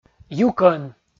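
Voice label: "юкӧн", Komi, male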